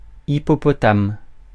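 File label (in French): "hippopotame", French; phonemes; Mots francais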